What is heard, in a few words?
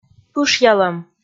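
"пышъялӧм", Komi, female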